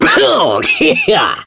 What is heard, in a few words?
pain; Awesomenauts